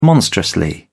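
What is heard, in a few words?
"monstrously", British English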